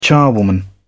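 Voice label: "charwoman", British English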